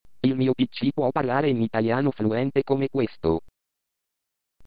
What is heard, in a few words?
italian, synthesizer